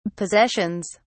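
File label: "possessions", British English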